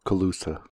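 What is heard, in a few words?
"colusa", US English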